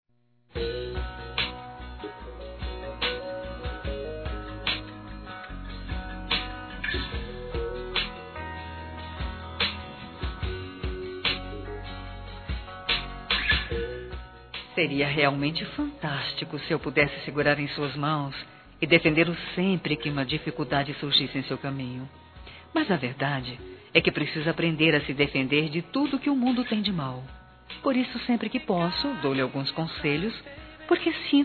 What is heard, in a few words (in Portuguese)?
Aniversário Neto Feminino